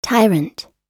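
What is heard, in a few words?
"tyrant", American English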